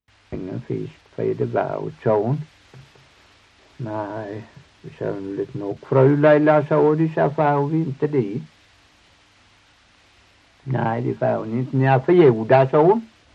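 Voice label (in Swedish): Torhamn Blekinge, Svenska sagor dialekter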